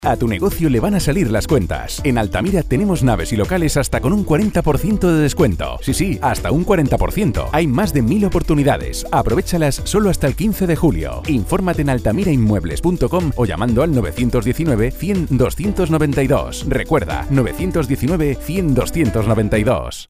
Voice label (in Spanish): locutor radio spanish voice